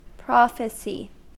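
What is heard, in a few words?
"prophecy", US English; pronunciations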